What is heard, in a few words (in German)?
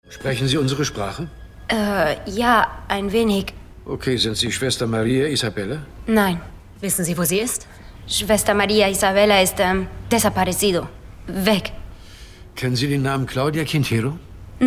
Spanischer Akzent Junge Nonne; KR Junge Nonne, spanischer Akzent; Goliath, Staffel 2, Amazon Prime